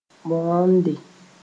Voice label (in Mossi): "bõonde", moore